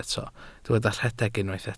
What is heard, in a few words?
"rhedeg", Welsh